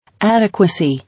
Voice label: "Adequacy", English